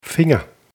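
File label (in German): "finger", German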